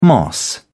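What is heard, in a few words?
"moss", British English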